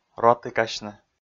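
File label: "ротікасьны", Komi, male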